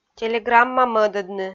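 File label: "телеграмма мӧдӧдны", Komi, female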